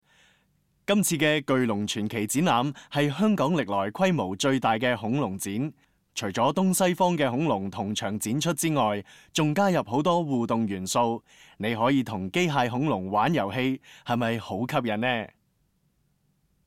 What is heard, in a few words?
CANTONESE